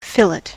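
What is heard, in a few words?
"fillet", round, American English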